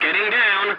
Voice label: voice message landing, english; War Thunder